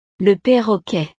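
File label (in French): "le parroquet", French; el loro; le perroquet; animales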